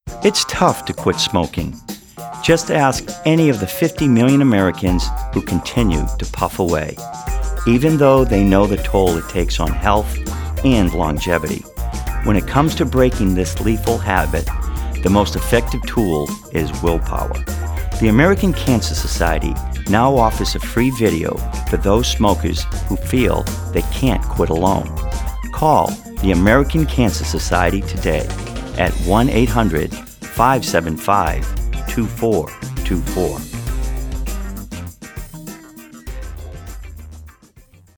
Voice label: PSA